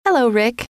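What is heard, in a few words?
hello, female; trainer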